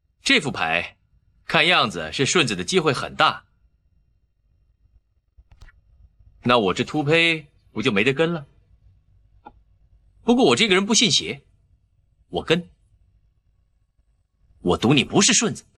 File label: vocals